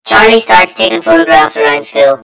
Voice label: story voiceover; yumpod